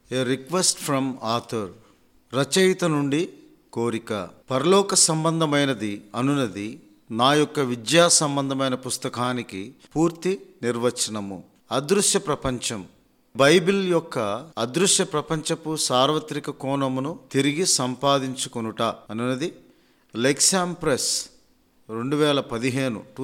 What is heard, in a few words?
supernatural, telugu